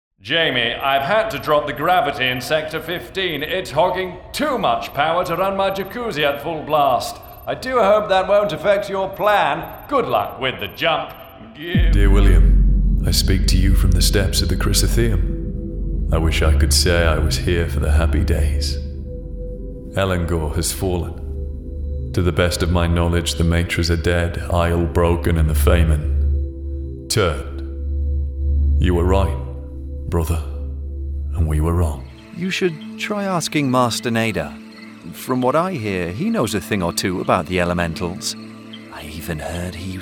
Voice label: english british video games

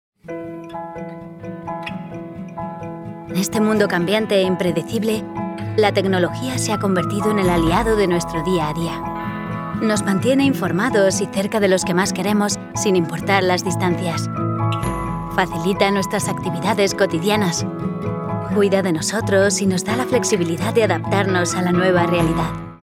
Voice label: Spanish corporate videos; actriz de doblaje española

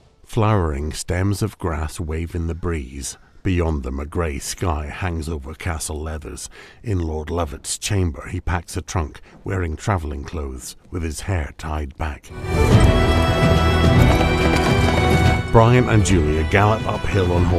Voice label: english scottish tv shows

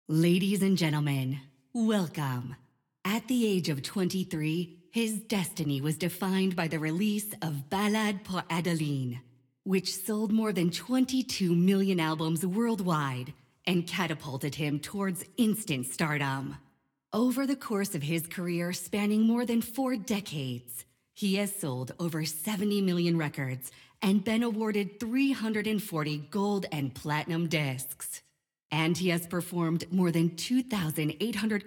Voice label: english american announcements; professional North American woman voice over talent

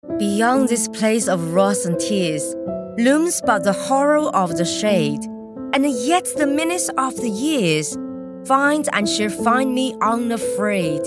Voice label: english others

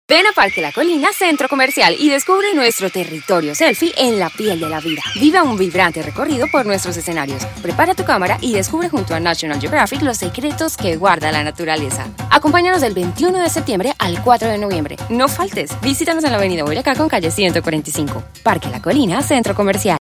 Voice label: spanish colombian promos; Voz versátil y expresiva, hablante nativa de español

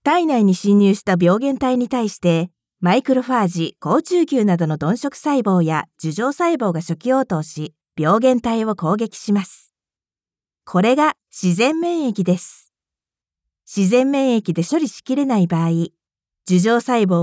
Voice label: japanese e-learning

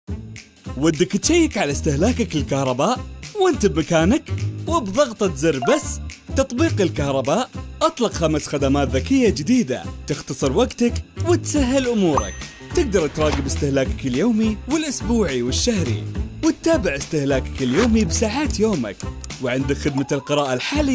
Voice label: arabic animation, arabic voice actors

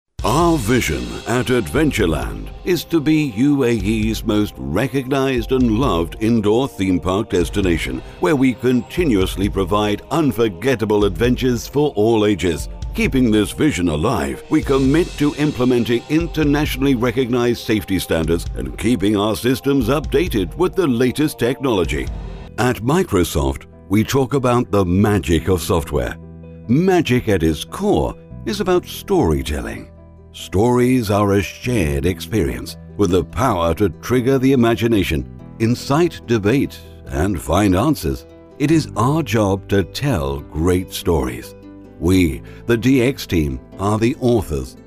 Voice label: english british promos; actor de doblaje británico nativo radicado en el Reino Unido

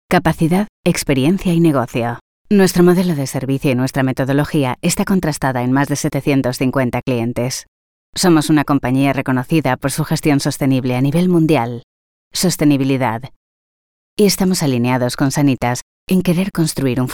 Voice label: spanish medical narration

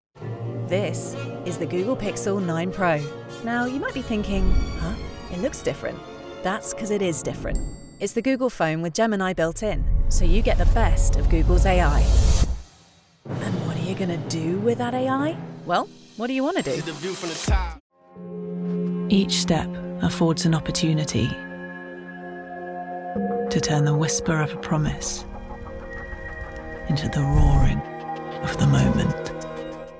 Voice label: english british commercial, millennial

